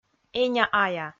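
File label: "эня-ая", Komi, female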